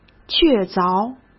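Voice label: "确凿", Mandarin Chinese; chinese-flashcards; hsk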